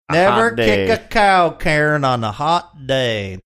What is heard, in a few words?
saying never kick cow cairn on hot day southern